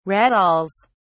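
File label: "rattles", British English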